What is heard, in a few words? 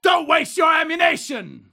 Dwarf Kill Enemy